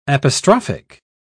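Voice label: "apostrophic", British English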